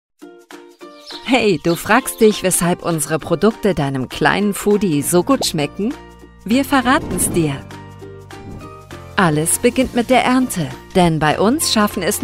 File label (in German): ERKLAERFILM Babykost zugewandt warm; NUeBEEBabykost